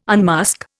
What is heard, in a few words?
"unmask", Indian